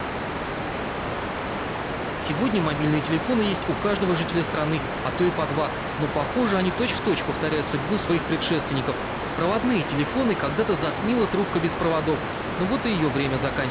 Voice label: rnoise +03dB